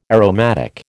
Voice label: "aromatic", American